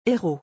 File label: "héros", French